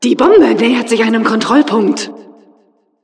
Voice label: announcer cart defender warning, de; "Die Bombe nähert sich einem Kontrollpunkt."; Administratorin

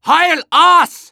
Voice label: battlecry; Medic

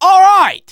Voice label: positive vocalization

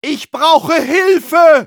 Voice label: medic help me, de

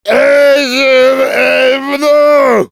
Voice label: battlecry, fr; Demoman; "(Charabia incompréhensible)"

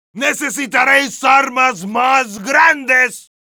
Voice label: Heavy taunts, es; "¡Necesitareis armas mas grandes!"

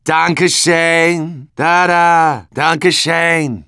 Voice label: Medic positive vocalization

